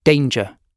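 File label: "danger", British English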